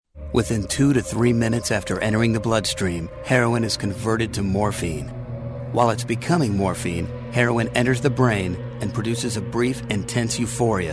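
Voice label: NAR, narration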